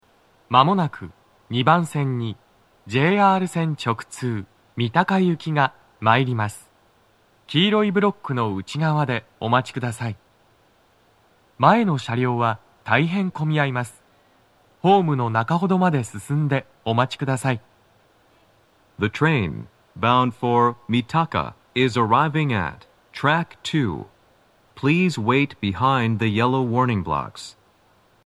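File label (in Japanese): 接近放送, 男声, 三鷹行 (混雑付帯)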